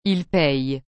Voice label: "ils payent", frans; payer

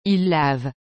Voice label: "il lave", frans; laver

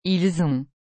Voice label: "ils ont", frans; avoir

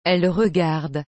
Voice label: "elle regarde", frans; regarder

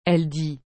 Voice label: "elle dit", frans; dire

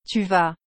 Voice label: "tu vas", frans; aller

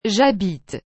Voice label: "j'habite", frans; habiter

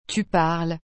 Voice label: "tu parles", frans; parler

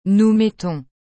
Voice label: "nous mettons", frans; mettre

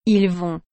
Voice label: "ils vont", frans; aller

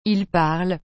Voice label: "ils parlent", frans; parler

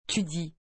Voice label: "tu dis", frans; dire

